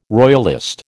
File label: "royalist", American